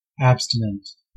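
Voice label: "abstinent", US English; pronunciation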